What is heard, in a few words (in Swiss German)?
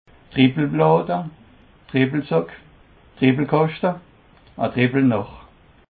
"dribbellaade", Alsace